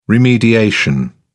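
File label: "remediation", British English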